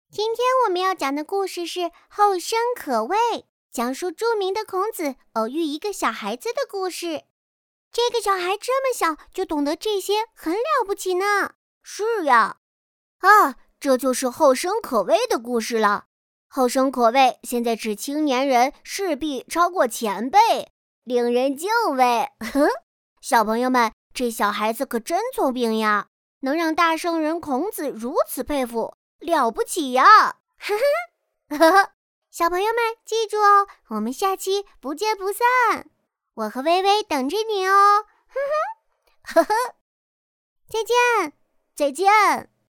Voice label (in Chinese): 女 可爱 女童 男童